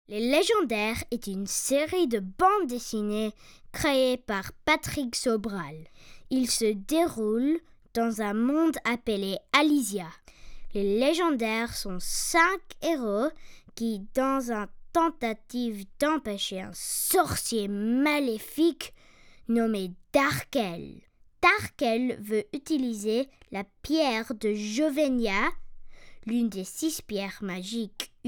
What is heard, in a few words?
FRENCH; Les Legendaires – French Language